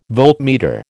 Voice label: "voltmeter", American